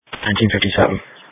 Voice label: Police Dispatch